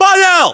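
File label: "pavel", battlechatter, Russian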